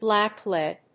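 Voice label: "black-lead", English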